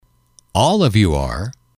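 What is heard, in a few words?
all Of You Are, english, male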